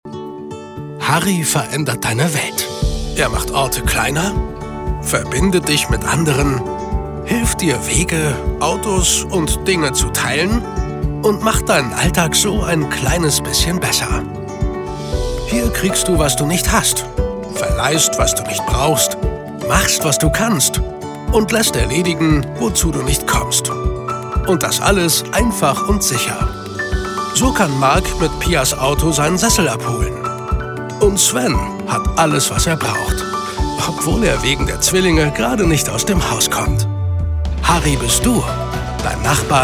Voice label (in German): Werbung positiv, fröhlich